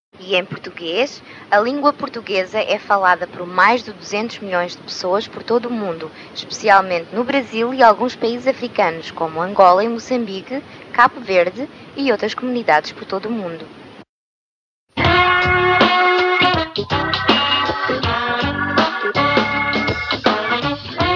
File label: Portuguese language in the world portuguese